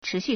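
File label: "chíxù", Chinese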